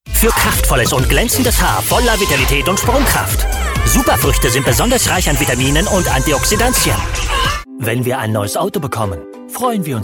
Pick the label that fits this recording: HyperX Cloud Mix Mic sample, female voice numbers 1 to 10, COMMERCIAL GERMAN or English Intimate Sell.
COMMERCIAL GERMAN